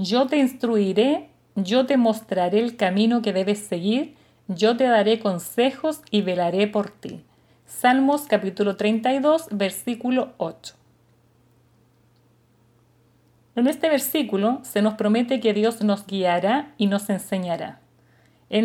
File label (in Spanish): devocional